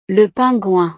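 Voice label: "le pingouin", French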